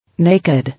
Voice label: "Naked", English